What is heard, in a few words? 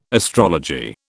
"astrology", British